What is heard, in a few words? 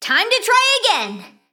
female mecha gnome